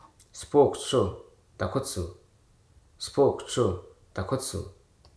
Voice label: "hypersensitive", Hruso